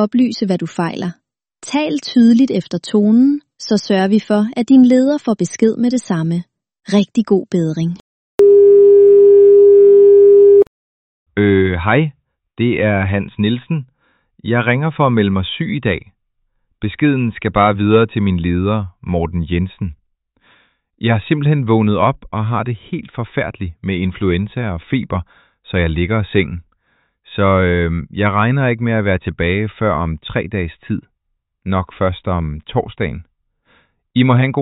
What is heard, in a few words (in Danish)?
sygemelding telefon